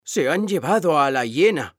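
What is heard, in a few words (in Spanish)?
"se han llevado", Spanish; mekanta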